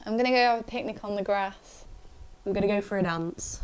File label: Yorkshire, accents of english